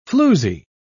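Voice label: "floozie", British English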